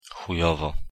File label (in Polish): wymowa po polski "chujowo"